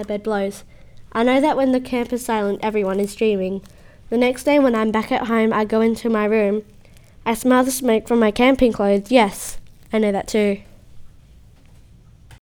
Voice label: Campfire poetry